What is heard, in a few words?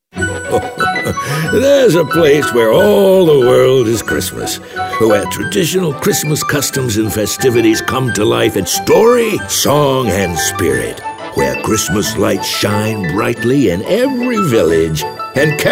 grandfather